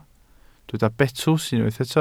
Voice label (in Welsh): "betws", Welsh